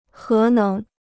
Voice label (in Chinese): "核能", Chinese